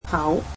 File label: "mouth", Cantonese